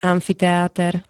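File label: "amfiteáter", Slovak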